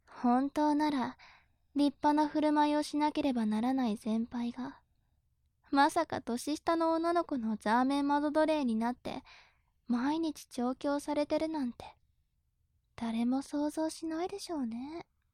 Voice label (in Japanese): 調教中音声